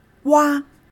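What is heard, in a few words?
"wa" Chinese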